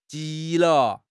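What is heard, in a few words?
"ji2 le5", Chinese; HSK; HSK3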